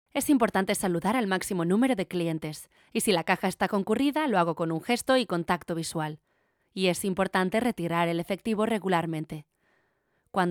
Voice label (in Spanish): Corporate Spanish